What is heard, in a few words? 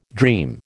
"dream", American